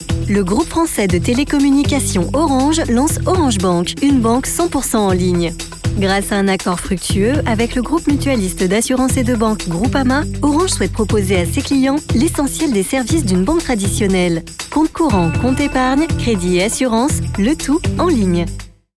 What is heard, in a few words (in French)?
voix off institutionnel corporate; Orange Bank